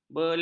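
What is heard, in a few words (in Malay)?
"belas", Malay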